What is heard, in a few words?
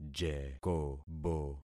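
"jekobo", concatenated syllables; Affixing experiment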